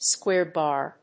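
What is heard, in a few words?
"square-bar", English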